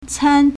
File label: "can1", Chinese